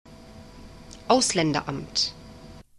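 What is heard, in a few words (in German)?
"auslaenderamt", German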